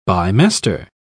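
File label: "bimester", English, uk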